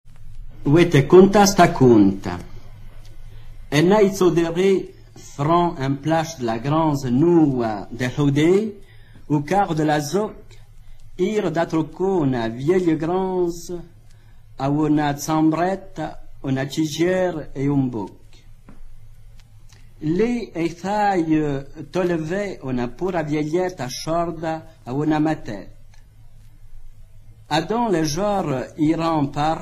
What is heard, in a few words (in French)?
patois d Heremence; patois valaisans; Li Tsaudric; L'ours et la petite fille